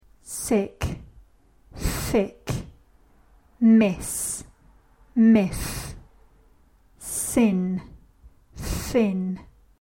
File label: minimal pairs, British accent; Pronouncing ‘TH’ in English